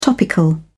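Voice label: "topical", British English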